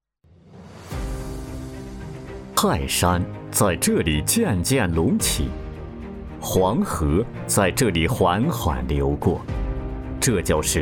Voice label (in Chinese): 男 专题; 东阿